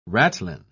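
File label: "ratline", British English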